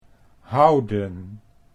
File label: "houden", Dutch